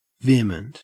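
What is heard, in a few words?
"vehement", Australian English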